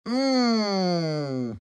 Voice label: happy; shredder

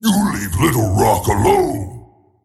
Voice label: anger; tiny

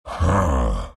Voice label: happy; beastmaster

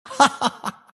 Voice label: monkey laugh; dota; monkey king

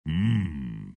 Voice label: tiny happy; dota

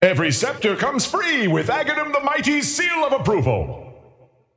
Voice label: scepter announce in game; dota

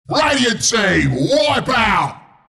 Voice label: announcer kill teamwipe; killing spree; dota; announcer dlc bristleback

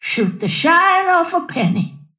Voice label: lasthit pain; snapfire

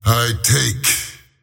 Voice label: lasthit pain; slyrak; dota

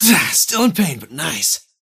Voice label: lasthit pain; dota; kez

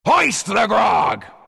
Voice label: announcer pick brewmaster, pirate; dlc workshop; capn

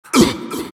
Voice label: monkey crown pain; dota; monkey king

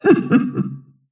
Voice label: happy; snapfire

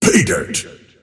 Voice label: lasthit pain; dota; earthshaker; arcana

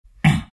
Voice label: treant pain

